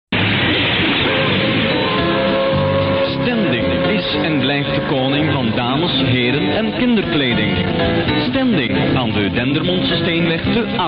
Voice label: commercial